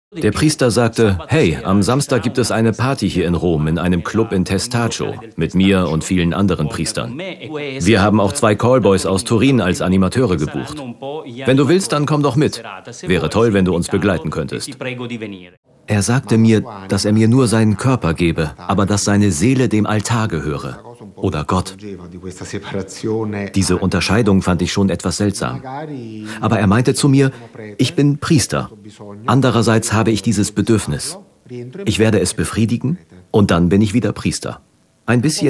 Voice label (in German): doku; ard